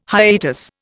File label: "hiatus", Indian